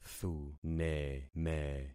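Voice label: "thuneme", concatenated syllables; Affixing experiment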